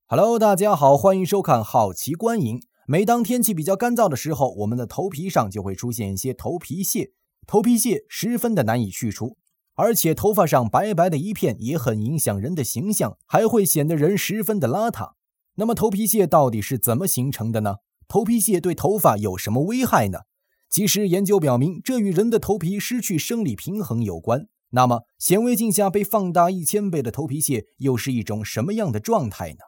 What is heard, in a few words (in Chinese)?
男 健康科普小视频